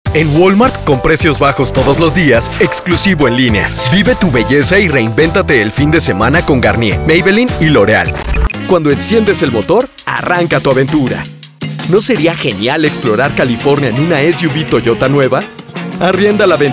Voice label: spanish commercial; Youthful, charismatic, Spanish neutral.

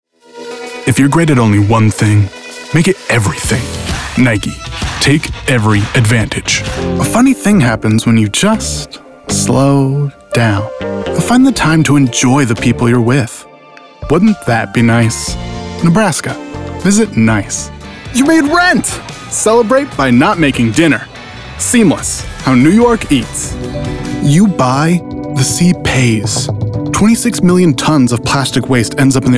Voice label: men commercial

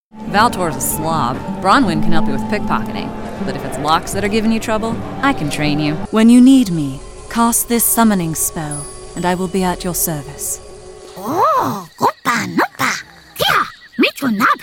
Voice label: women video games; Engaging storyteller with a huge array of characters and a talent for accents/dialects. Versatile, natural, savvy, conversational, millenn

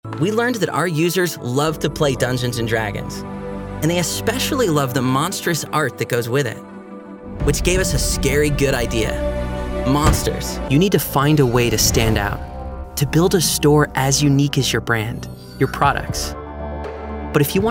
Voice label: men elearning; Clear. Free. Youthful.

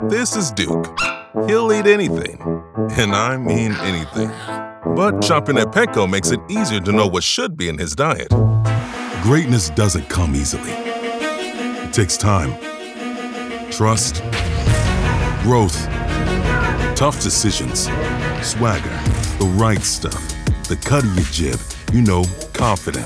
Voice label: men commercial; Deep, smooth voice. Conversational and cool, with a talent for animation and gaming.

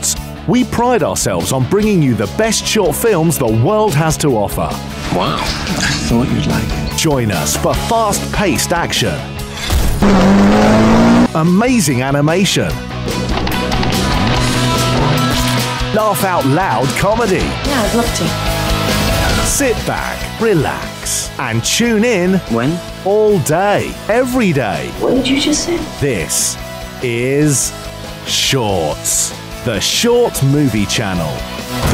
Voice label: british promos; BRITISH » High energy and fun British voice.

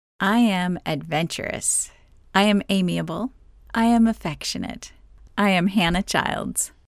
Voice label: women mini demo

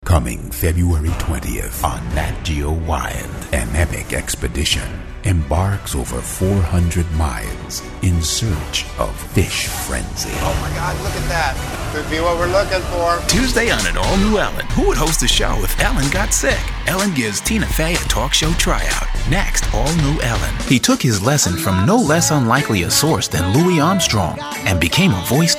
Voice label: african american promos; AfAm. Rich, resonant, real.

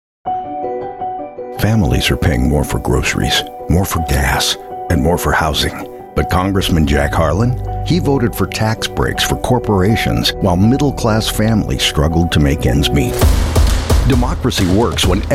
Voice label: men political

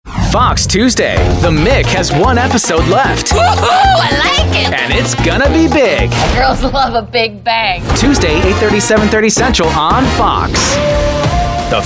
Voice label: men promos; Youthful, hip and comfy.

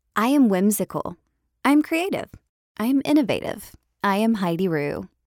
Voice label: women mini demo

